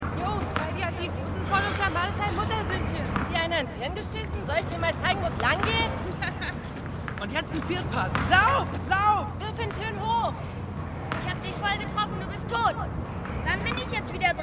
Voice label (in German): Jugendliche; The Wire